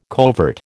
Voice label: "covert", American